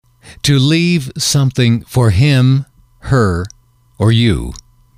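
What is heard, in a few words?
to leave something for him her, English, male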